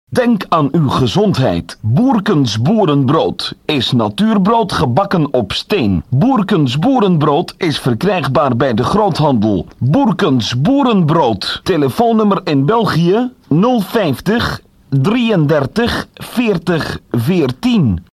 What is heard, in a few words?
commercial